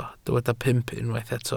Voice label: "pump", Welsh